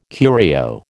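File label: "curio", American English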